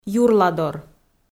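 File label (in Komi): "юрладор", Komi, female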